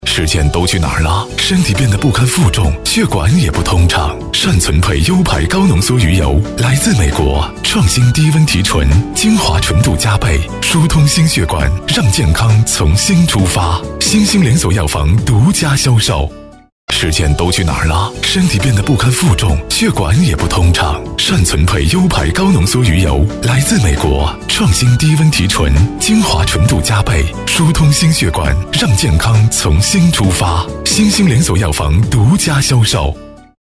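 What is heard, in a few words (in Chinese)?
国语 男声 广告 深沉浑厚 大气浑厚磁性 沉稳 科技感 积极向上 时尚活力; 善存沛优牌高浓缩鱼油-产品广告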